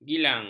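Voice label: "gilang", Malay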